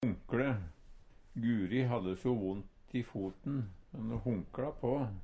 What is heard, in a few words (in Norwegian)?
honkle, Numedalsmål; Guri hadde so vondt i foten, ho honkla på.